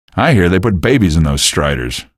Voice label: remarks, male; video games; npc; source engine; episodic; episode 1

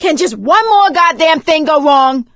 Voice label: reaction negative, survivor voice; source engine; left 4 dead 2; producer

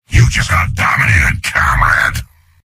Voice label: soldier domination heavy; source engine; tf; mvm

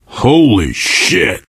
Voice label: violence awe, player survivor voice biker; source engine; left 4 dead 2

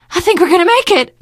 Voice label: hurrah, teen girl, survivor voice

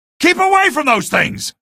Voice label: alert rollers, male; video games; npc; source engine; episodic; episode 1

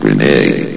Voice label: "grenade", vox; source engine; hl1; video games

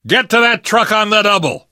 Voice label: arrive truck, survivor voice

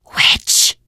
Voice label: warn witch, teengirl; voice; source engine; left 4 dead 2; survivor

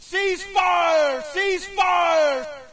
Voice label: us cease fire, player; Source Engine; dod

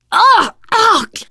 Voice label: boomer reaction, teengirl; survivor voice

